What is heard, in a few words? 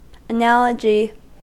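"analogy", US English; pronunciations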